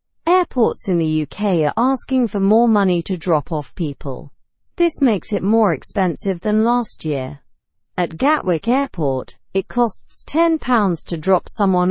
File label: learn english through news beginner slow; airport drop off fees increase